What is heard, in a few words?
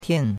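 "tian4", Mandarin Chinese; Cours-de-Chinois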